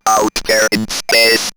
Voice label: Robot Out There In Space, robot vox; X-Static Goldmine